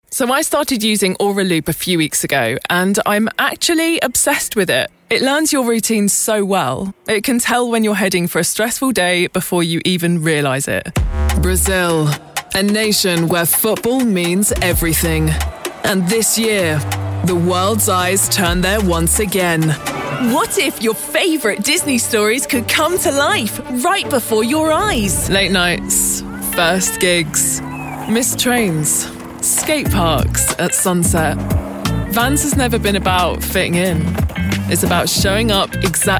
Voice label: commercial reel

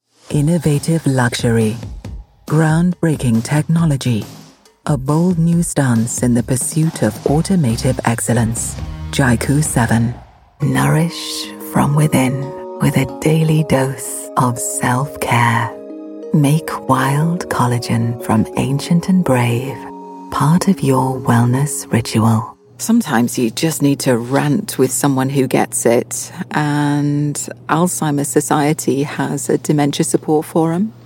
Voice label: commercial mix, Friendly Engaging Voiceover

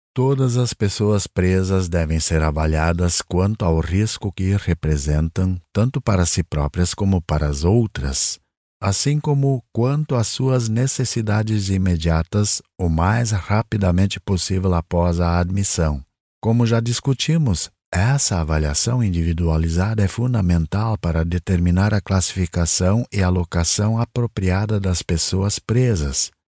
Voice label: Brazilian e-learning

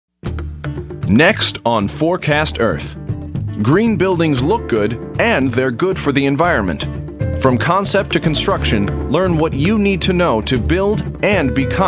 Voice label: american voice documentary

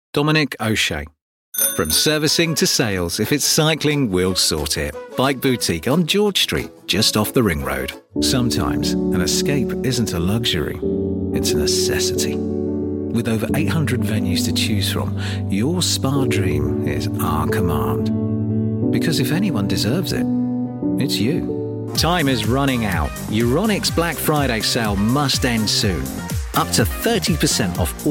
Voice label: commercial

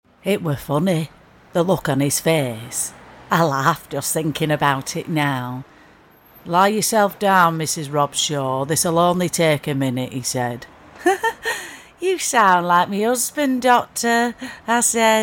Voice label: character

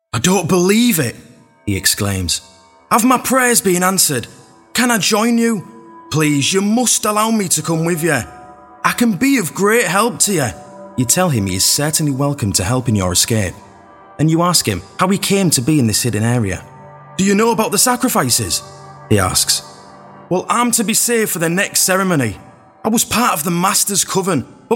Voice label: Yorkshire voiceover character

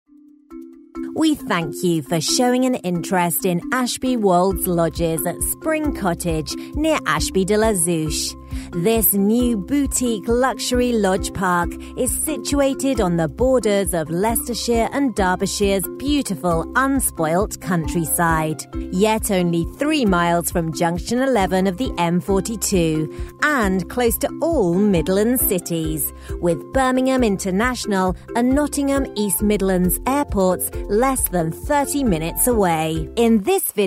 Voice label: corporate

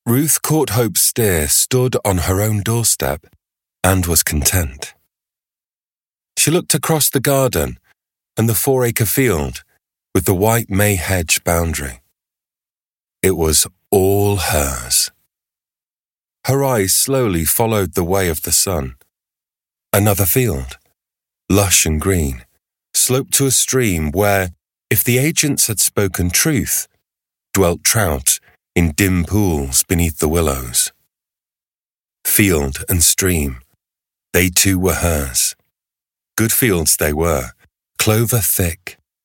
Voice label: audiobook, Gravitas Warm Trustworthy Voice

